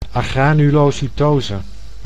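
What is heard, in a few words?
"agranulocytose", Dutch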